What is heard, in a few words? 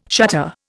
"shutter", Indian